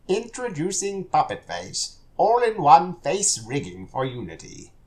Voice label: Oldman Introducing; HauntedBloodlines; PuppetFace